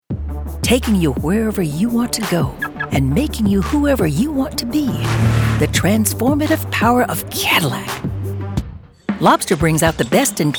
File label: Narration